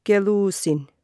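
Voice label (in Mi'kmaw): "kelusin", Mi'kmaq; wowkwis aqq kaqaquj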